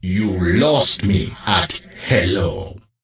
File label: Death Knight Troll Male Pissed